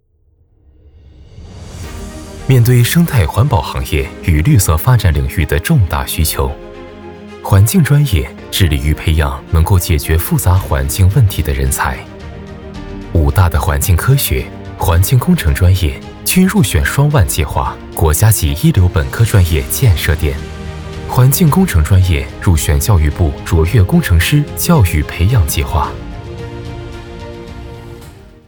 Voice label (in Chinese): 科技感年轻